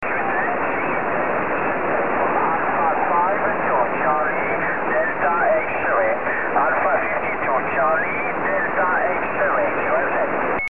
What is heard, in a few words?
28 ssb